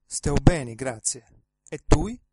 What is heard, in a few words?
fine, Sardinian